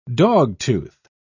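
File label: "dogtooth", British English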